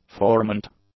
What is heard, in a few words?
"formant", English; nouns